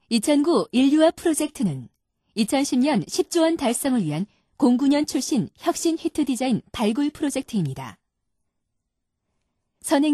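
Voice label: Korean female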